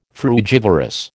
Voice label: "frugivorous", American

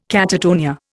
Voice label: "catatonia", Indian

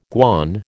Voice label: "guan", American

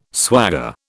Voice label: "swagger", British

